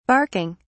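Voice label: "barking", British English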